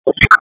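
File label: Raleigh Police North District Tactical; wake p25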